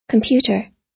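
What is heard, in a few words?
"computer", American English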